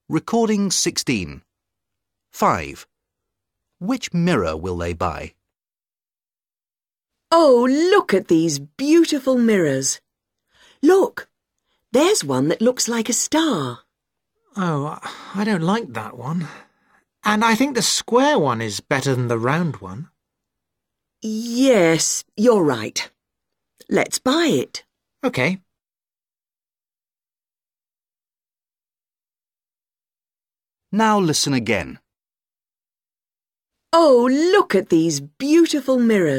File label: dialog